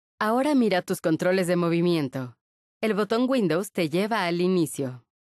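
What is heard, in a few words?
windows button, voiceovers, Mexican Spanish; retaildemo; Mixed Reality Learning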